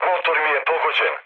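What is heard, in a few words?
Serbian damaged engine mood low, speech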